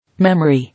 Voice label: "Memory", English